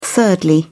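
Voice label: "thirdly", British English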